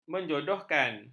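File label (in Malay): "menjodohkan", Malay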